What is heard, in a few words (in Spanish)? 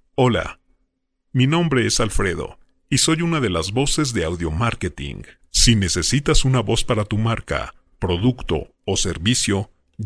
Locutores, Mexico; Audiomarketing